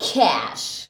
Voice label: "CASH", SHOUTS; Best Service; Hyper Dance